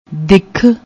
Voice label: "dikh", Punjabi; ਦਿੱਖ

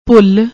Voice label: "bhull", Punjabi; ਭੁੱਲ

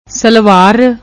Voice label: "ਸਲਵਾਰ", Punjabi

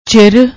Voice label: "chir", Punjabi; ਚਿਰ

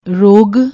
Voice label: "rog", Punjabi

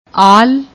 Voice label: "aal", Punjabi; ਆਲ

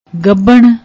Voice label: "gabhnn", Punjabi; ਗੱਭਣ

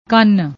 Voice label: "kann", Punjabi; ਕੰਨ

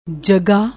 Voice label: "jagga", Punjabi

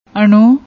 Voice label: "annu", Punjabi; ਅਣੂ

